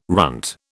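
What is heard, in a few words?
"runt", British English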